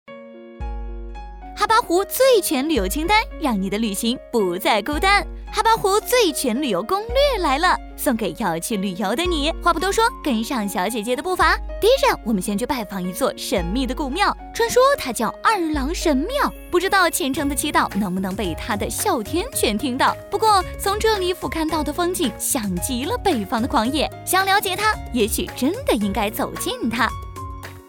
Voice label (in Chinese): 专业女声8, 彩铃【温暖治愈】; 中文